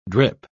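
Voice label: "drip", English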